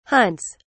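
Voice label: "hunts", English, British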